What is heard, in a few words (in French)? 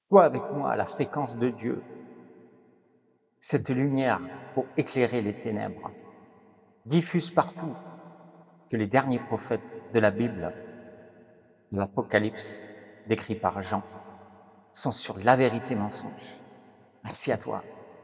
Voix Off